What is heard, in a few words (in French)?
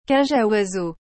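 "cage a oiseaux", French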